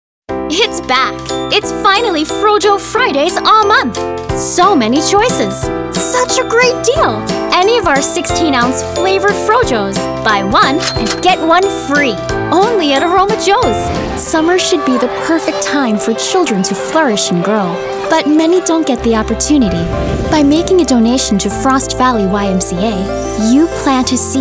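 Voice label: voice, Fun, friendly, young, girl-next-door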